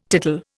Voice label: "tittle", Indian

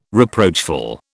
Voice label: "reproachful", British

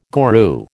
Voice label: "guru", American

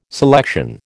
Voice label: "selection", American

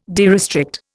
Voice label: "derestrict", Indian

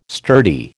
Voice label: "sturdy", American

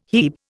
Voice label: "hebe", Indian

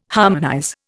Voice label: "harmonize", Indian

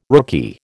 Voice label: "rookie", American

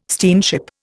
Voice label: "steamship", Indian

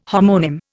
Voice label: "homonym", Indian

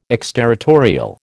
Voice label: "exterritorial", American

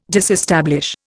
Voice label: "disestablish", Indian

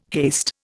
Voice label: "haste", Indian

